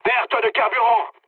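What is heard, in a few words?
French tank damaged mood high; War Thunder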